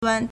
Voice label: "wan1", Chinese